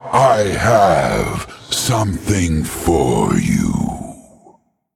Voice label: Male Undead Emote Greetings